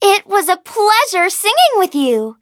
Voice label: victory, en; cookie run